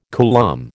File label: "coulomb", American